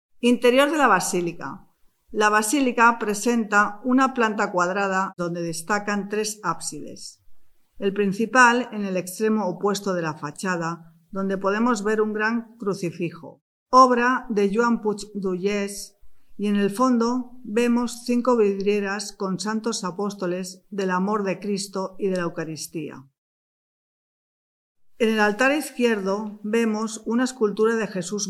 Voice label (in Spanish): Audio Espanol; TIBIDABO; audioguias